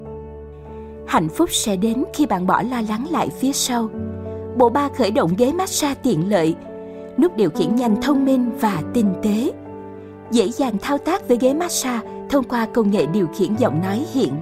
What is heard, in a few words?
TVC; Ghe Massage Fujikashi